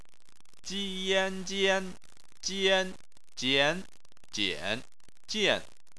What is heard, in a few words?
"jian", chinese